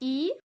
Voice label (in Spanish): vocale i, Espagnol; vocales